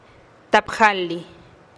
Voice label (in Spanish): "tap jalih" ochenta, teotitlan